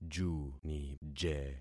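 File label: "junije", concatenated syllables; Affixing experiment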